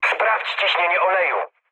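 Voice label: damaged oil, mood med, Polish speech; War Thunder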